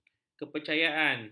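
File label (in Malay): "kepercayaan", Malay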